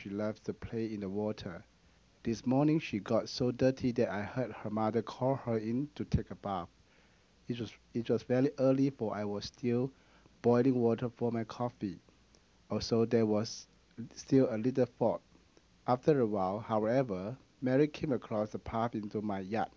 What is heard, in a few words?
Mandarin Chinese Singapore